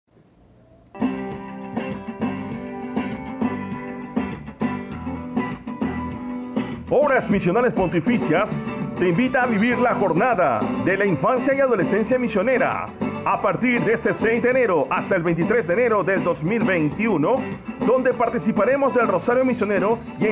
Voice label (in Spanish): audio promocional